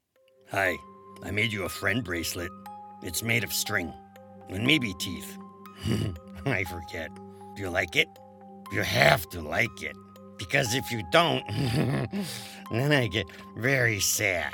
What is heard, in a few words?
video game voiceover; Blue